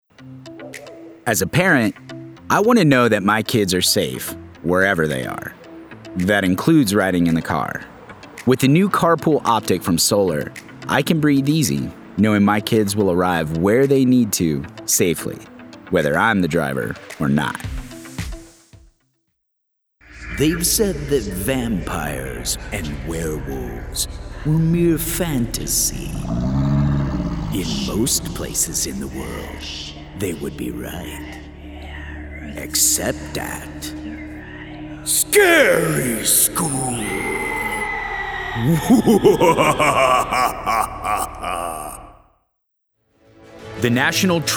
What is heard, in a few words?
VO Demo Compilation